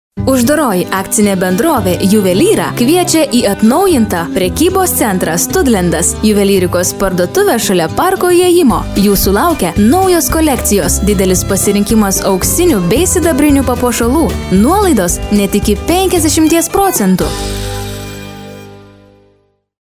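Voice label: lithuanian female; lektoring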